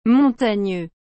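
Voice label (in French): "montagneux", French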